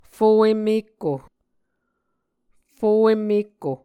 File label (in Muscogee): "fo enmekko", Muscogee; fo emmēkko; Mvskoke Words with Repeated Consonants; A Dictionary of Creek/Muskogee; SIL International